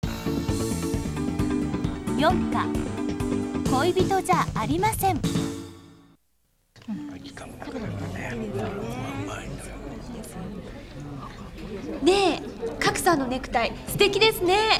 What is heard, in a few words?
Pronunciation Koibito ja arimasen yo